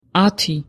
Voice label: Georgian ten